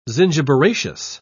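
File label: "zingiberaceous", British English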